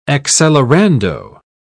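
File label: "accelerando", English, British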